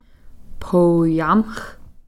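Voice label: "whirlwind", Takelma word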